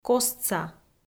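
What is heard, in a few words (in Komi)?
"костса", Komi-Zyrian, female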